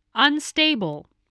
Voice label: "unstable", English spelling voice